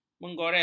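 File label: "menggores", Malay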